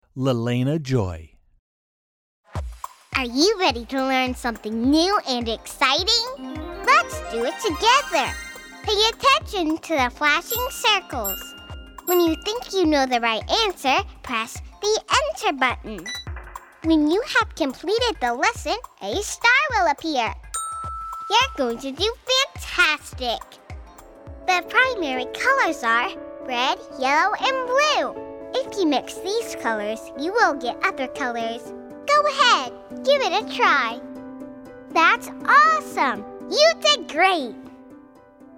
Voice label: E-Learning